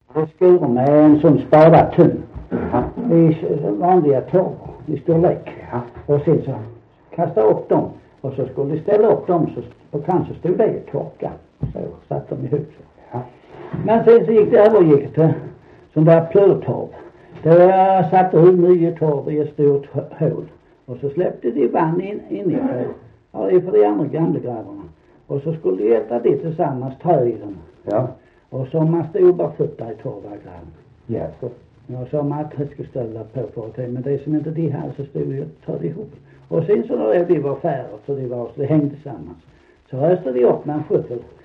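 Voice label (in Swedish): Sankt Olof Skåne, dialekter; Folkminnen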